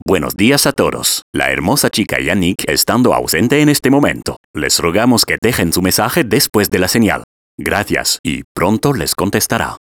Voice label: Voix Hom Espagnole

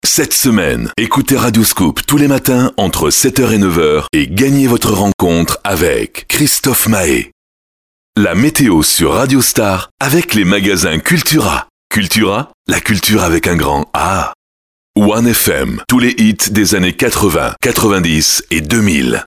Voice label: Voix Hom Grave Posee Classe

